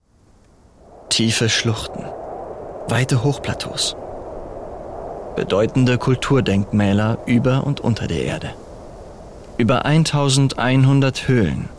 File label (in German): Doku; SLOWAKEI